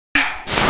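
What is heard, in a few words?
"ma3", Mandarin Chinese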